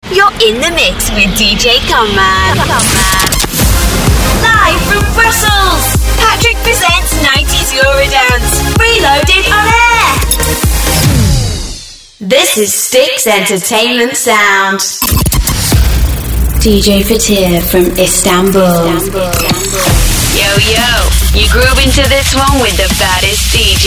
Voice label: Radio DJ

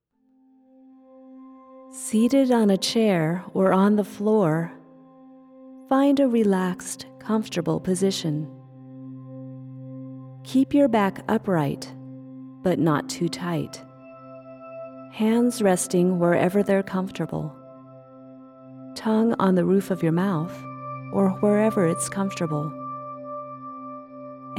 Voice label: Meditation sample

